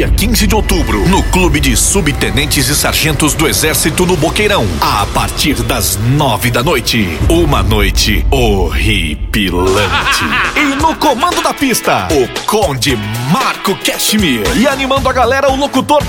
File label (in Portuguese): chamada evento halloween locucao radio; halloween kashmir